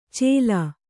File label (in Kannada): "ಚೇಲ", Kannada